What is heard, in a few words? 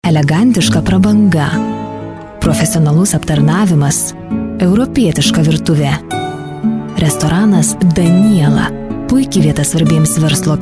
lt commercial